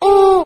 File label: "ooh", Voice